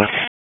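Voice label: Police Southwest District Tac, radio; wake p25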